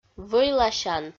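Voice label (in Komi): "вӧйласян", Komi-Zyrian, female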